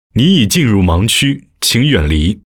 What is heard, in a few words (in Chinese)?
男 提示音; 男声广播提示